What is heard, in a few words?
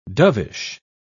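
"dovish", British English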